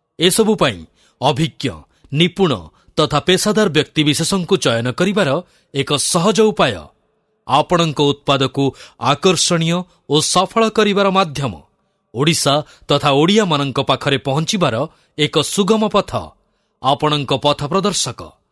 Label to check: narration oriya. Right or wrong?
Right